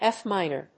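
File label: "F minor", English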